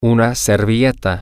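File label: Spanish, male; napkin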